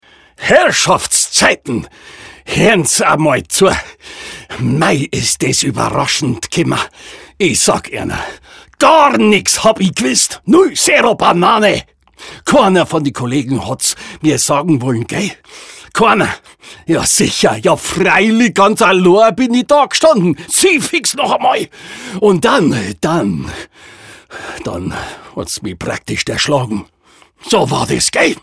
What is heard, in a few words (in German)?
Voice Over Dialekt bayrisch; LOFT VOICES